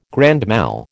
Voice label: "grand mal", American